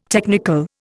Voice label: "technical", Indian

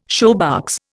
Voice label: "shoebox", Indian

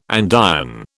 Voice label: "andiron", British

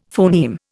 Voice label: "phoneme", Indian; Dictionary

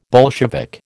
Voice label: "Bolshevik", American

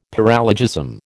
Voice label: "paralogism", American

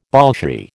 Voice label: "Bolshie", American

